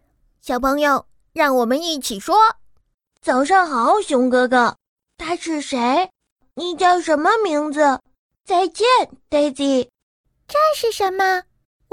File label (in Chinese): 动画 童声 男童&女童&老师